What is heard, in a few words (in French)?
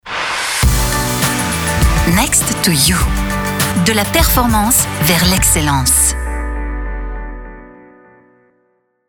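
habillage, voix off femme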